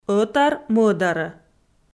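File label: "ӧтар-мӧдарӧ", Komi, female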